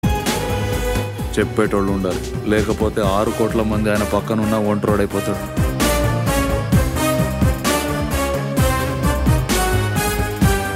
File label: Trailer Dailouge; NTR Mahanayakudu; Rana Daggubati